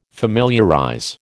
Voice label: "familiarize", American